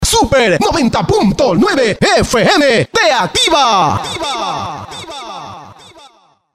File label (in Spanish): cuña super fm te activa